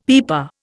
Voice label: "pipa", Indian; Dictionary